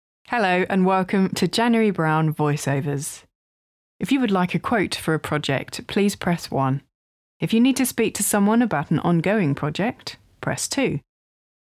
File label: Recorded Messages